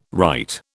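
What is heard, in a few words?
"right", British English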